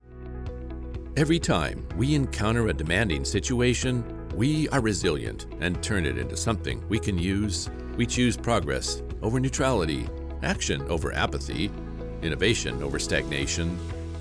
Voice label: great commercial